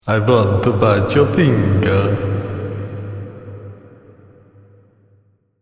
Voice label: Dracula, I Vant To Bite Your Finger, evil voice; Horror Sound Effect